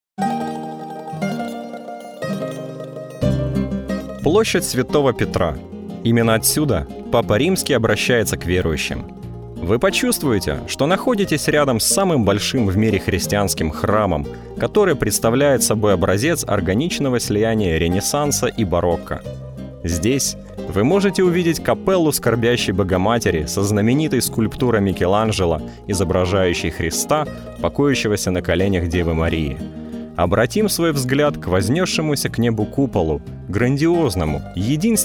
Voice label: putevoditel russo